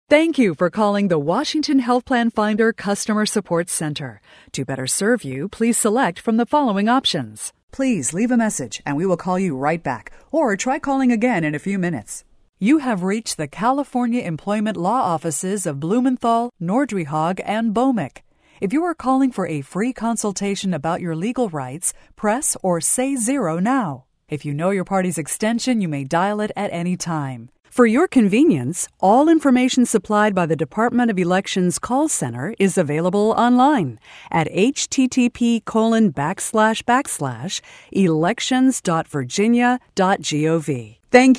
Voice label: IVR phone systems; voice-over